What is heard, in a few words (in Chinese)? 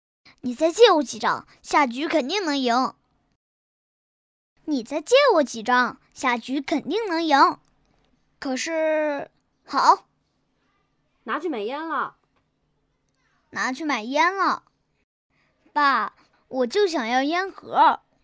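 女大音-男真童; 真童配音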